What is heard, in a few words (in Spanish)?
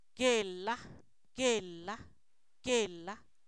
anona, Zapoteco, san miguel albarradas; alimentos